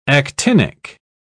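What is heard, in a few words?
"actinic", British English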